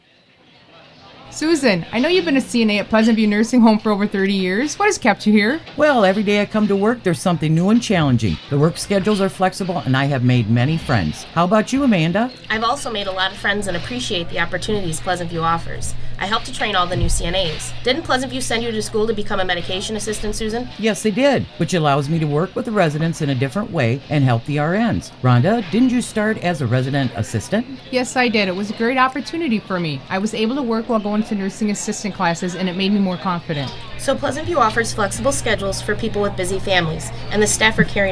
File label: radio ad; CNA